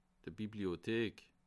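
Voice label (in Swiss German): "bibliothek", Bas Rhin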